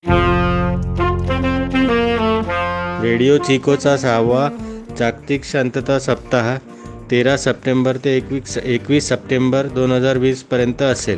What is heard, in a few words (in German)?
jingle, marathi